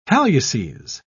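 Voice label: "halluces", British English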